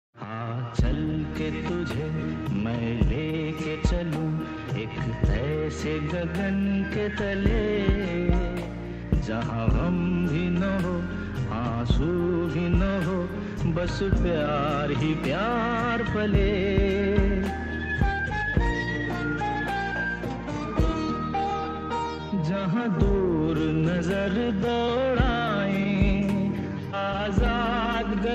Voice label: Tv Ads; Vivo IPL (2016)